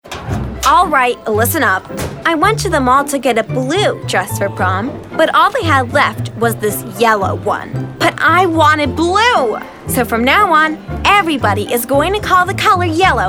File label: character vo